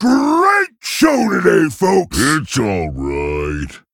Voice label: Male Ogre Play